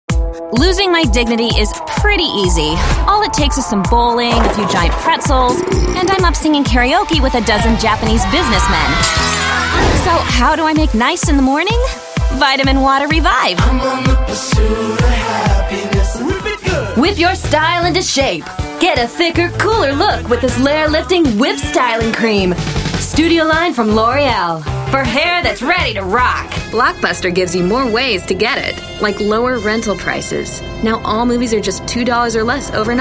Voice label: F Commercial, voiceover womens

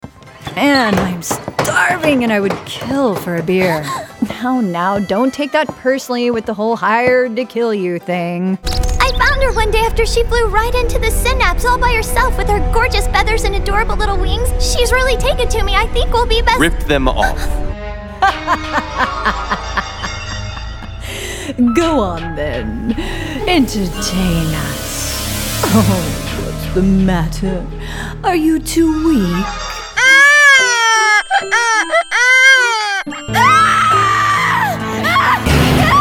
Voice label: voiceover, character, women

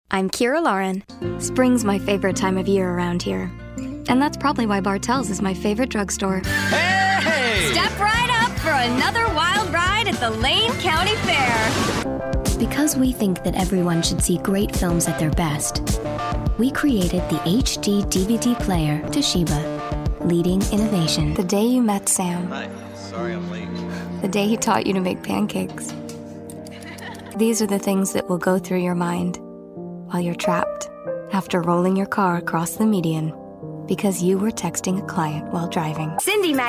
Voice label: F Commercial, voiceover womens

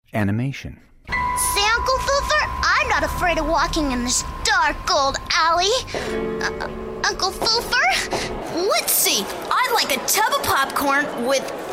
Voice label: voiceover character women

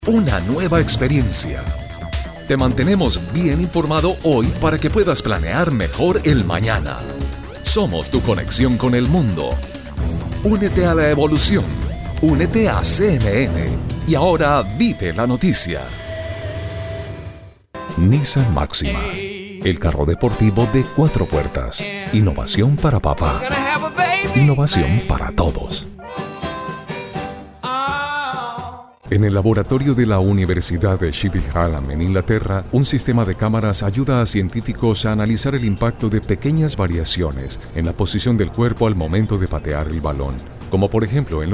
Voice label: M Commercial

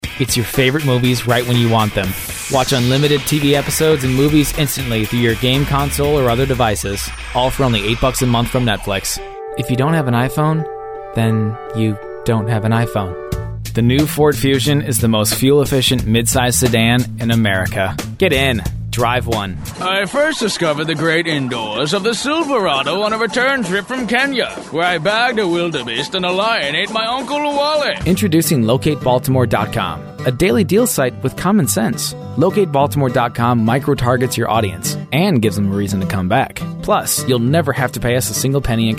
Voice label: voiceover, mens

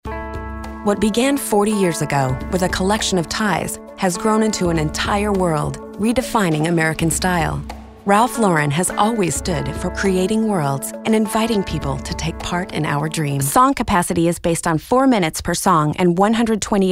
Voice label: F Narration, women

